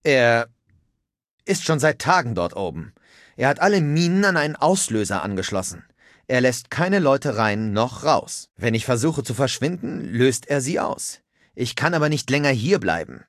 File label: Male adult default